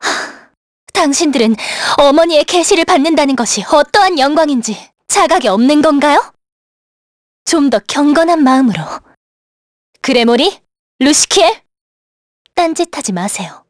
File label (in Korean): vox dia, kr; heroes; kingsraid